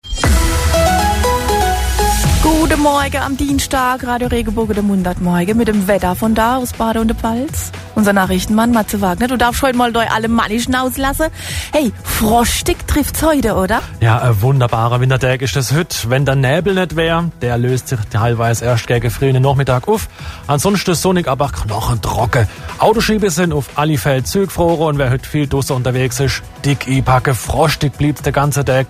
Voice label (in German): Mundart; RadioRegenbogen; Mundart-Morgen